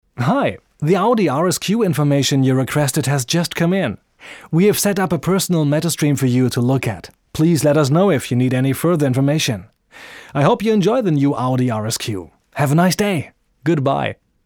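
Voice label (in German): American English, Werbung, Dynamisch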